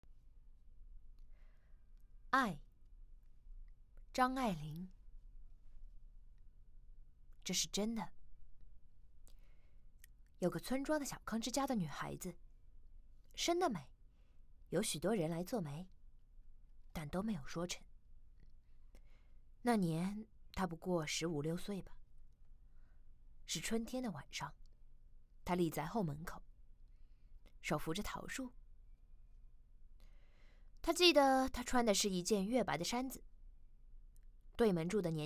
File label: poem